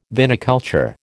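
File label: "viniculture", American English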